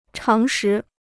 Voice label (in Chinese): "常识", Chinese